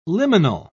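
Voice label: "liminal", British English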